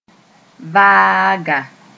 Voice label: "vaaga", moore

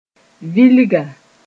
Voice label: "vilga", moore

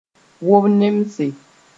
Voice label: "wob-nemse", moore

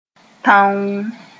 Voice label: "tãoo", moore; alone

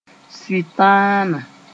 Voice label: "sʋɩtãana", Moore; devil

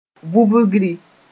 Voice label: "vubgri", moore

